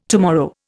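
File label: "tompion", Indian; Dictionary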